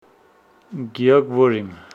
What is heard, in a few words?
Homshetsi I am hot